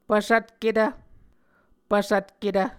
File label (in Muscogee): "pvsvtketv", Muscogee